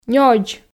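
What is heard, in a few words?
"нёдз", Komi, female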